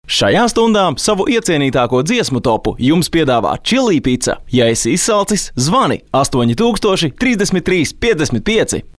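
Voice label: Latvian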